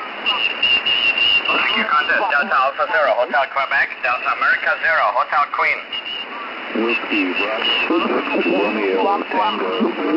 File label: DA0HQ usb, da0hq contest